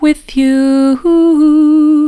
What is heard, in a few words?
with you soft; vocal